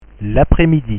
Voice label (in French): "l'après-midi", French